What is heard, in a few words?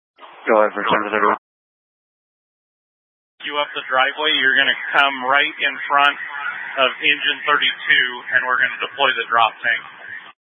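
Incident Tac 2, Scanner audio recording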